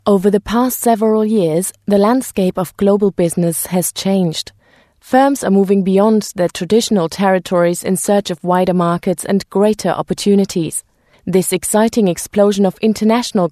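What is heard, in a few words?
German English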